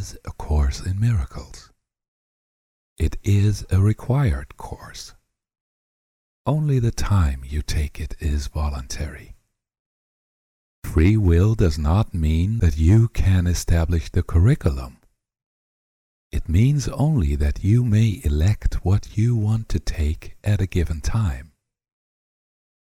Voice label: text introduction, audiobooks narrated